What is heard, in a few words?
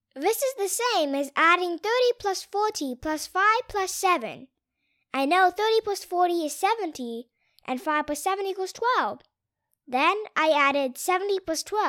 Learning App Young Boy Voice, Authentic boy voice, rather high pi